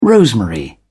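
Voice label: "rosemary", British English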